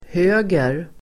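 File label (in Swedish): "höger", Swedish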